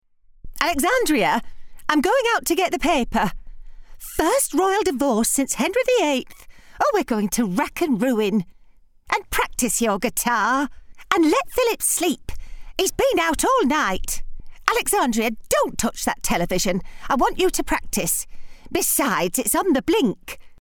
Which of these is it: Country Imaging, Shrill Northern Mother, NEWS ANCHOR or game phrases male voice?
Shrill Northern Mother